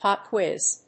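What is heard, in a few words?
"Pop Quiz", English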